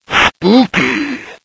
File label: taunt directed; chronos; zhongkui